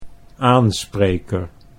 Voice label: "aanspreker", Dutch